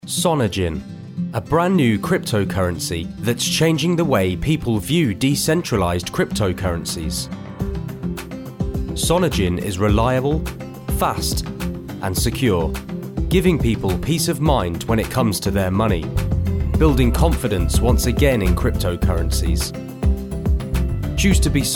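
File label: English male; lektoring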